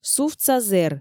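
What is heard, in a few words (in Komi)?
сувтса зэр, Komi, female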